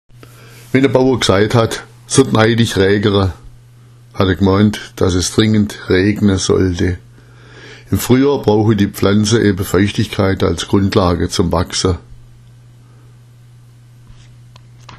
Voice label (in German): "naitich", schwaebisch